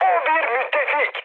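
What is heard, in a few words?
Turkish player friendly fire, mood high, speech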